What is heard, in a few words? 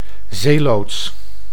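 "zeeloods", Dutch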